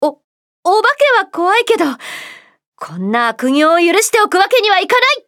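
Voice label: start, Japanese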